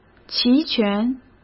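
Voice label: "齐全", Mandarin Chinese; chinese-flashcards; hsk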